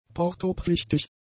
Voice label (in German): "portopflichtig", German; adjectives